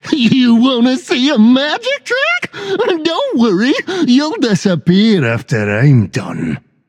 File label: taunt, voice; smite; tyr